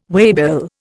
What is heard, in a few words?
"waybill", Indian